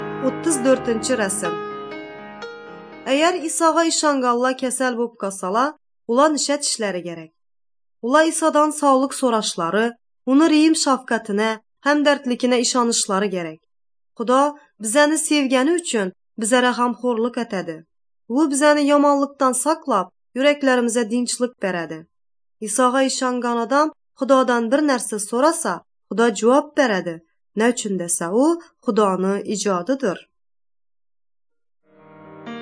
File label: uzbek northern kipchak; good news